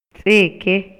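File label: Maya, male; EMOCIONES Y VALORES; INALI; MayaYuc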